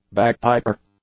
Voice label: "bagpiper", English; Substantiv; nouns